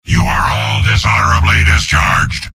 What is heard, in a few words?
soldier jeers; mvm